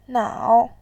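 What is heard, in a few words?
"nao", Mandarin, tone 3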